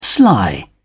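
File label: "sly", English, British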